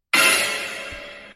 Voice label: surprise